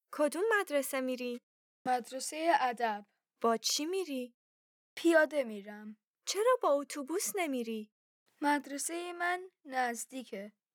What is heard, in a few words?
Dialogue lesson Farsi